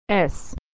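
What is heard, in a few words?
"s", American English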